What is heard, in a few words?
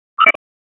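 Police Spec Ops Tac, radio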